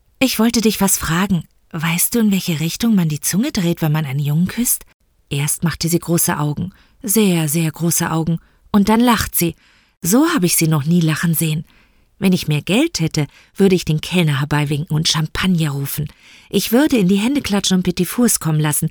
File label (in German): hoerspiel, deutsch frauen; sprecher